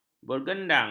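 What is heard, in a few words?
"bergendang", Malay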